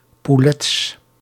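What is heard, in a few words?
"poulets", Dutch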